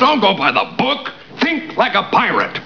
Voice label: pirate